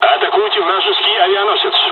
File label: bombing, dialogs russian; War Thunder